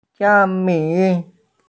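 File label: "xiami", Mandarin Chinese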